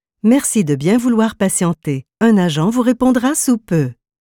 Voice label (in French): merci de patienter, ivr